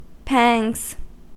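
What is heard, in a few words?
"pangs", American English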